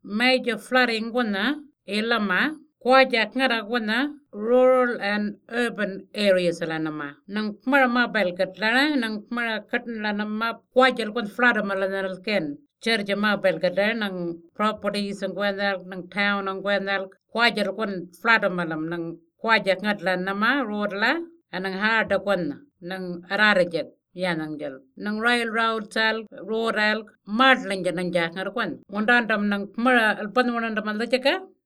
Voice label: east central Arrernte floods message; tropical cyclone esther february 2020; NTFRES